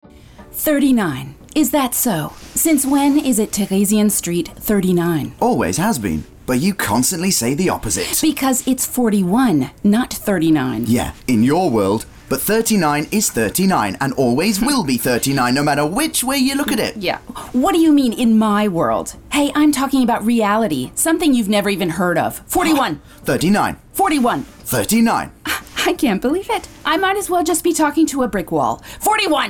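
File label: US English, Dynamisch; Fremdtake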